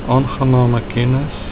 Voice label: nice to meet, Afrikaans; Aangename kennis.; Nice to meet you.